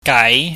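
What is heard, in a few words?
"gaai", Cantonese, mid rising tone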